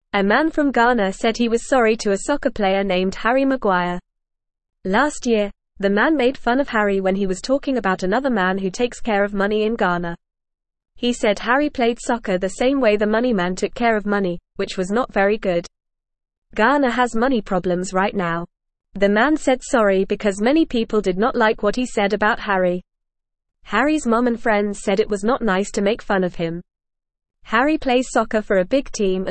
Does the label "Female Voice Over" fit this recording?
No